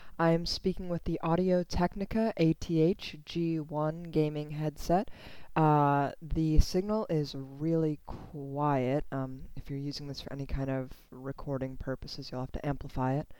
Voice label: microphone demo